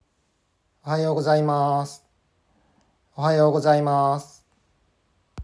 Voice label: Ohayo Gozaimasu Japanese Pronunciation